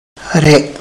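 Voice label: here, Tlacochahuaya Zapotec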